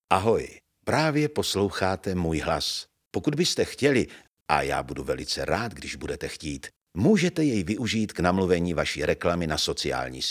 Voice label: czech narration